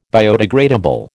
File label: "biodegradable", American